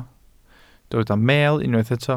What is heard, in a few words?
"meel", Welsh